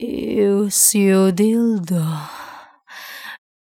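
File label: vocal female, Dance Vocals, spoken words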